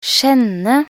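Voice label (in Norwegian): "skjenne" Norwegian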